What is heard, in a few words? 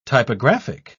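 "typographic", British English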